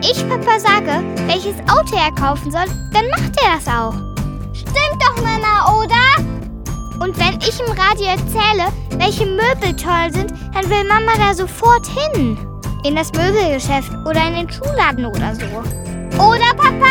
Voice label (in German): Werbung Kinderstimmen